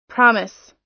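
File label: "promise", US English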